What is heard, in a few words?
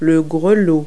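"rattle", English word; Multi-Lingual Talking Picture Dictionary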